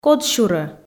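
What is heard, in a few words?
"кодсюрӧ", Komi, female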